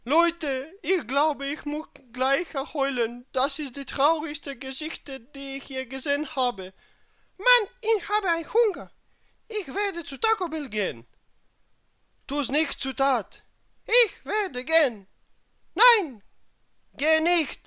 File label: Drama, German; DE